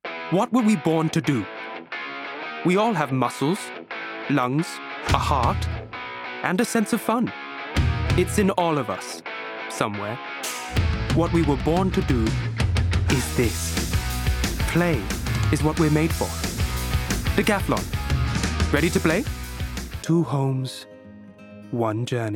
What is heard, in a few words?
Indian Commercial